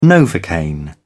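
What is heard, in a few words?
"novocaine", British English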